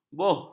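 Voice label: "boh", Malay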